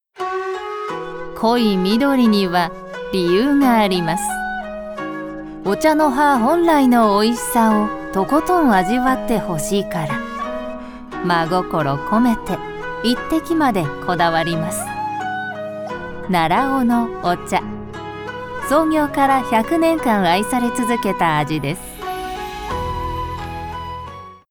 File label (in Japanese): お茶CM 和風; ボイスデモ